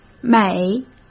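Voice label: "每", Mandarin Chinese; chinese-flashcards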